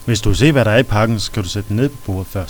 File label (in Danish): Streng lærer